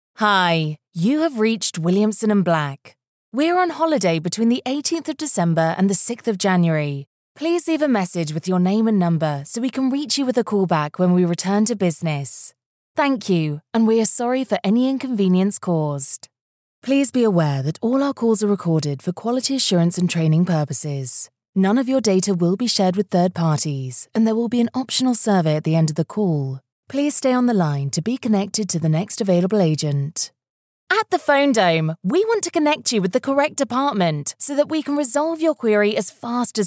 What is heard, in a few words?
telephone